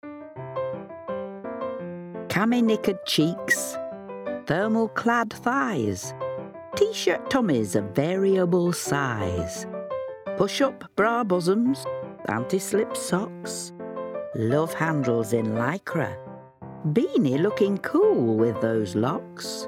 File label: Fairy